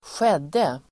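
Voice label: "skedde", Swedish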